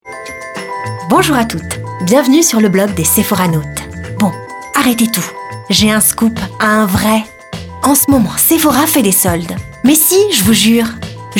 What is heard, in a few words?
french promos; French voice over actress native from France, neutral accent.